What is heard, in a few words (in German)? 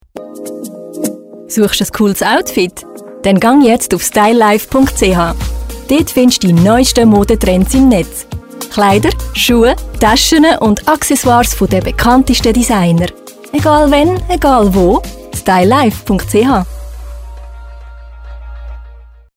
Schweizerdeutsch Werbung online shopping